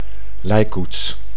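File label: "lijkkoets", Dutch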